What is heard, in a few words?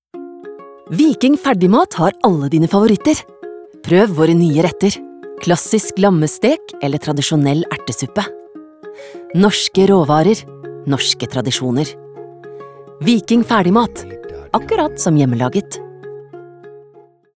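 Norwegian commercial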